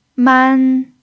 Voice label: "man1", chinese

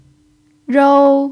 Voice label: "rou1", Chinese

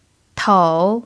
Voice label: "tou3", chinese

